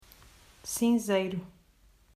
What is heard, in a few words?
"Cinzeiro", Portuguese